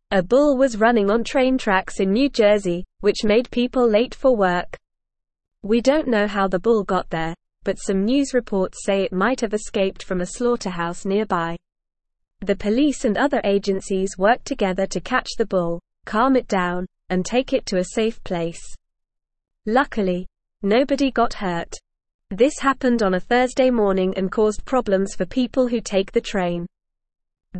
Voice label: English Upper Intermediate NORMAL Reading; English Newsroom; Bull on New Jersey train tracks causes delays

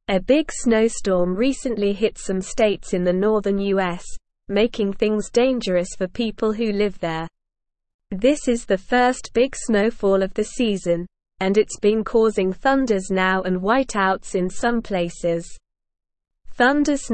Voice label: English Newsroom Upper Intermediate SLOW Reading; Winter Storm Causes Chaos and Dangerous Travel Cond

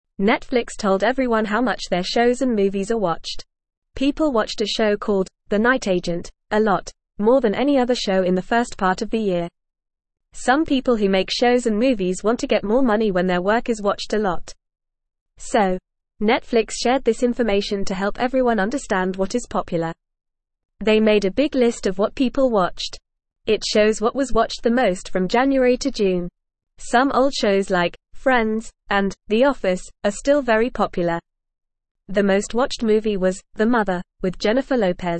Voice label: Lower Intermediate FAST Reading, English; Netflix Shows and Movies What People Like; English Newsroom

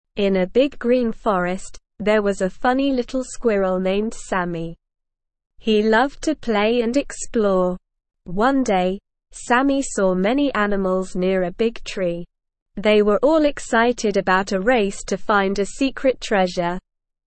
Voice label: ESL Short Stories for Kids Beginner SLOW Reading; The Squirrels Big Adventure

